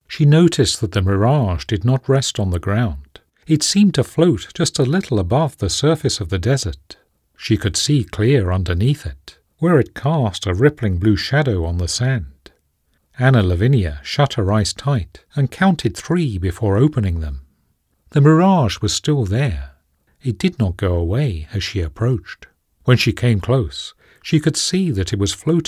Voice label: Mystery, voiceovers